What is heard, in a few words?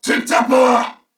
Reload, Corpus Venus Male; Dialog; Barks; Battle; Warframe; CorpusVenusMaleA